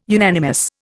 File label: "unanimous", Indian